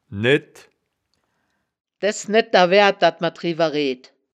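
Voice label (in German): "ned", wittlicher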